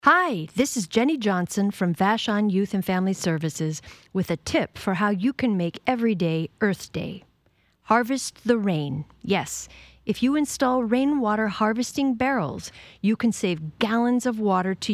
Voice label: Promo, PSA; EarthWeekTips